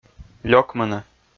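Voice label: "лёкмыны", Komi, male; kpv audio dict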